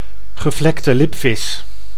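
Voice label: "gevlekte lipvis", Dutch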